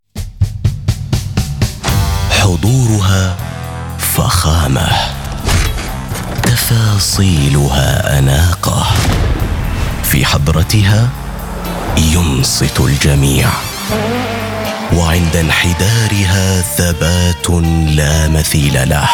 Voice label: Commercial Elegant Luxury Powerful Standard Arabic; Mercedes